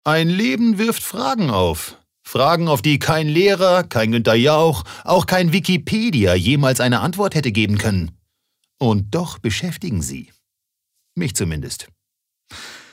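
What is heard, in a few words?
acting, dynamic; Schauspiel; Dynamisch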